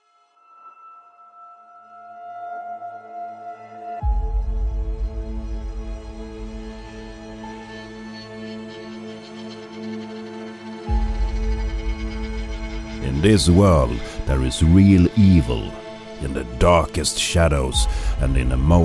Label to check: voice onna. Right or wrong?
Wrong